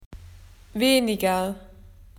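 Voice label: "less", Australian English; PictureDictionary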